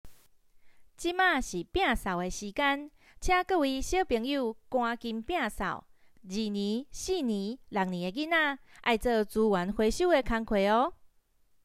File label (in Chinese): 福山國小回收台語版